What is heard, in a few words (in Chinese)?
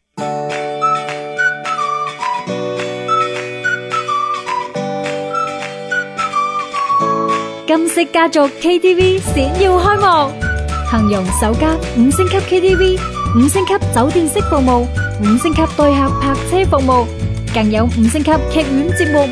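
女声广告活泼KTV广告粤语; 金色家族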